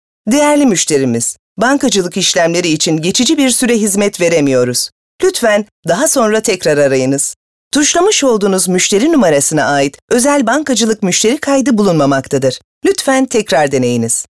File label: IVR